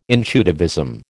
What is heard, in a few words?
"intuitivism", American